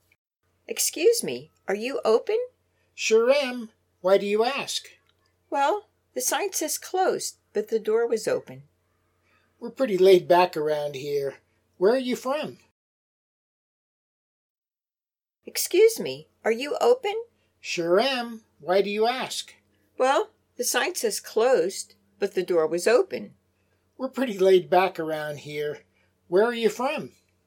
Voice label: Natural Dialog